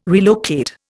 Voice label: "relocate", Indian

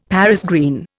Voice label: "Paris green", Indian; Dictionary

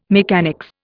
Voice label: "mechanics", Indian

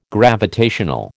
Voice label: "gravitational", American

